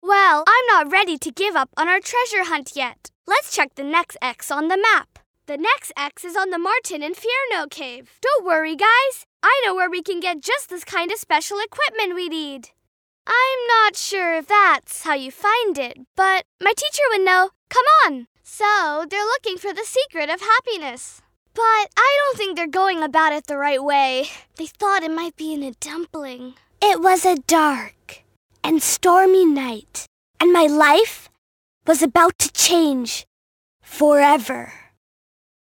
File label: Animation